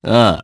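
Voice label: voice, Japanese; Ezekiel; kingsraid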